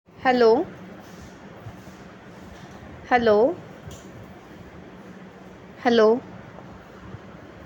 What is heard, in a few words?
call recording